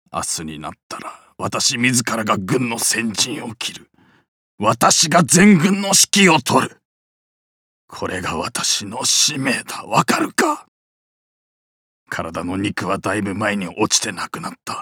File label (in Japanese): 追い込まれた武官40代